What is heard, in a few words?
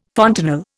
"fontanel", Indian